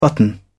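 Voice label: "button", English, British English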